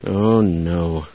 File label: startle, scientist; source engine; hl1; video games